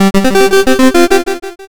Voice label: robo voice